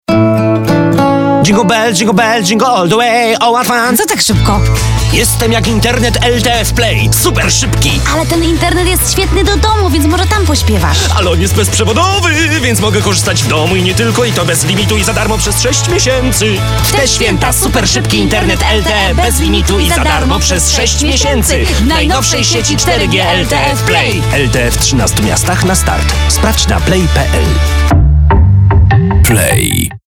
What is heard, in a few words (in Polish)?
Radio online swieta; pop